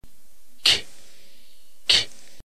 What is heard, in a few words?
kappa, ntgreek alphabet phoneme